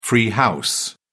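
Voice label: "free house", British English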